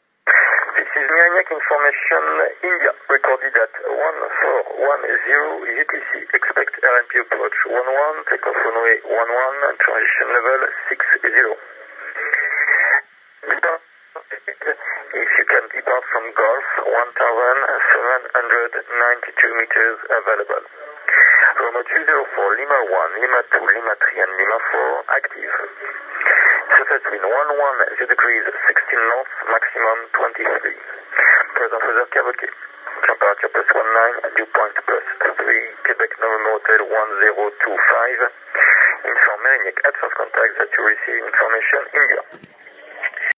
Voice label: ATIS; LFBD